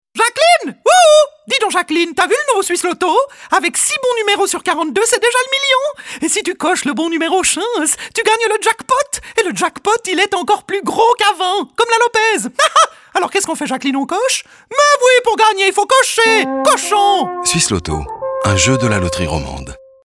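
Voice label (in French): spot, spots radio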